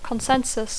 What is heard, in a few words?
"consensus", English